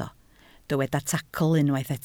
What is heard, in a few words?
"tacl", Welsh